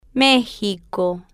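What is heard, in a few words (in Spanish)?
"mexico", Spanish, female, slow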